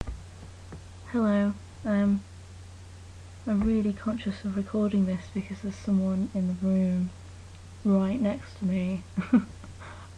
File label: accents